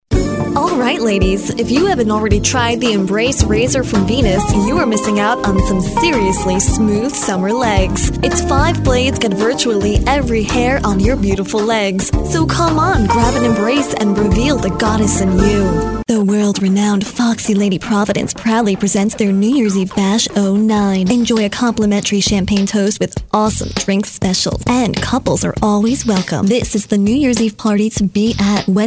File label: Commercial; Commercial Demo